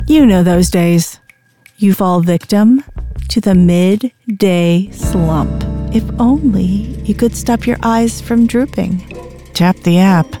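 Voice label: Flat Attitude Wry, Professional Female Voice Actor; Dunkin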